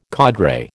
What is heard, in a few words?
"cadre", American